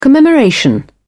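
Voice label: "commemoration", English, British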